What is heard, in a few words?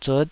"zut5", taiwanese